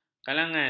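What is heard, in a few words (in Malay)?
"kalangan", Malay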